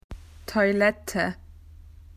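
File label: "toilet", Australian English; PictureDictionary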